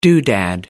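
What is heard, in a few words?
"doodad", British English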